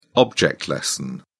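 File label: "object-lesson", British English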